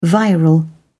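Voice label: "viral", English, British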